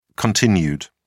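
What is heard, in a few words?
"cont", British English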